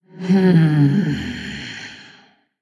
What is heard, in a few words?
Female Blood Elf Thinking; Valeera Deathknight